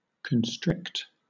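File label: "constrict", British English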